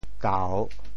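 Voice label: "kau3", Teochew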